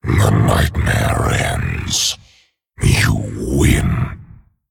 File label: Male Dragon Concede